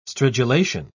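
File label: "stridulation", English, British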